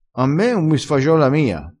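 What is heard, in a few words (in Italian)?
"sfagiola", vocabolario dialettale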